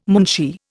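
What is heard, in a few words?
"moonshee", Indian English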